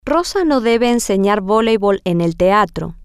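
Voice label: rosa shouldn't teach volleyball at the theater, Spanish, female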